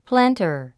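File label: "planter", English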